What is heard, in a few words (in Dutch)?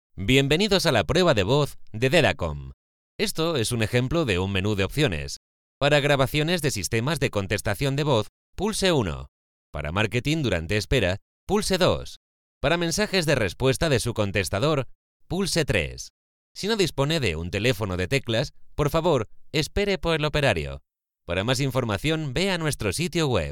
Voice over Spaans Europees man; spaanse voice over stem